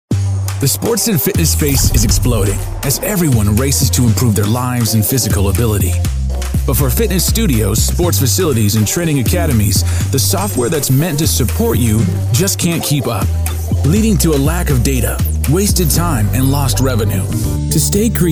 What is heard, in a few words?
Explainer